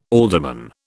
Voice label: "alderman", British